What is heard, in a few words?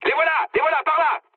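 French enemy detected mood med; War Thunder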